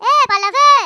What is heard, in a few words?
"OI NUTTER", Greek; Worms Armageddon